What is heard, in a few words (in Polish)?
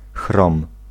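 "chrom", Polish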